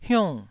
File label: "hiung4", taiwanese syllable